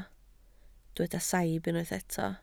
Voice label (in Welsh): "saib" Welsh